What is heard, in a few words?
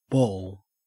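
"ball", Australian English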